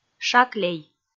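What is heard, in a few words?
"шаклей", Komi, female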